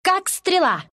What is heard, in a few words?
move, ru; dota2